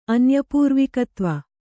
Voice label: "ಅನ್ಯಪೂರ್ವಿಕತ್ವ", Kannada

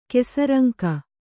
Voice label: "ಕೆಸರಂಕ", Kannada

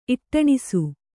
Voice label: "ಇಟ್ಟಣಿಸು", Kannada

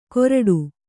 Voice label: "ಕೋರ್ಟು", kannada

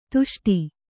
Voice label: "ದಷ್ಟ", Kannada

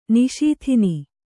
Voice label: "ನಿಷೂದನ", Kannada

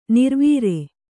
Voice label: "ನೆರೆವರಿ", Kannada

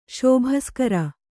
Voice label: "ಶಾಬಾಸ್‍ಗಿರಿ", Kannada

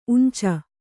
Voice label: "ಉಂಚ", Kannada

